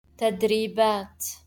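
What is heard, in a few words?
"تدريبات" Arabic; training sessions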